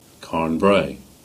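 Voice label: "Carn Brea", Cornish; place-names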